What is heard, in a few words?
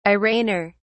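"arraigner", British English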